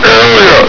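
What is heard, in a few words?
"area!", male; hl2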